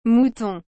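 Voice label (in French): "mouton", French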